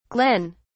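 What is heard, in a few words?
"glenn", British English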